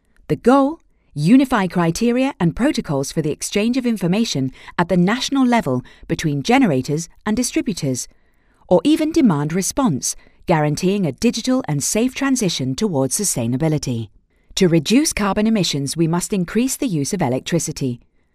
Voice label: locutora inglesa english voiceover

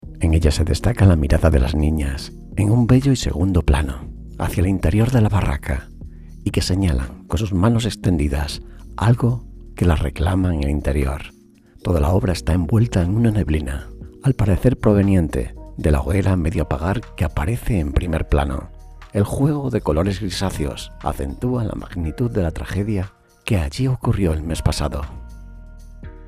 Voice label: locutor spanish voiceover; locutor español. Grabación para web

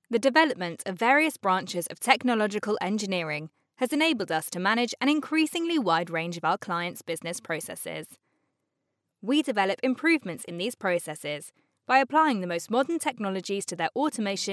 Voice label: locutora inglesa british english voiceover

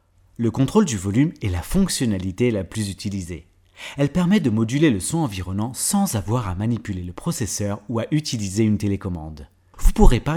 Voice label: locutor frances french voiceover

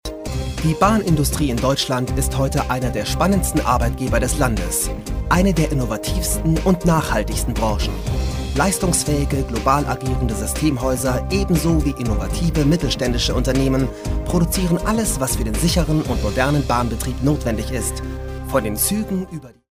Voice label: locutor aleman german voiceover

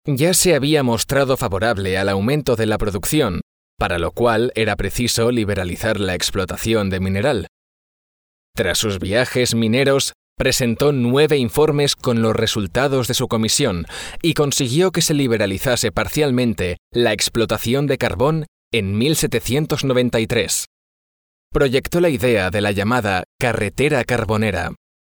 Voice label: locutor spanish voiceover

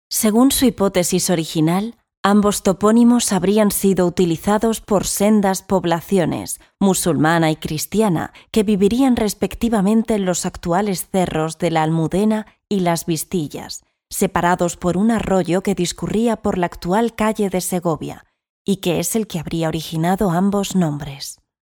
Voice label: locutora spanish voiceover

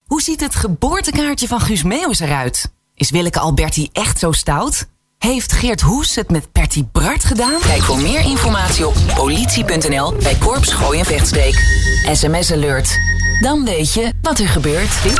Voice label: dutch female voiceover locutora holandesa

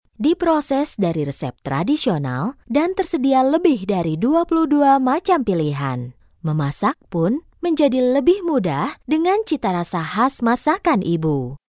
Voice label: bahasa female indonesian voice locutora indonesia; indonesian female voice talent

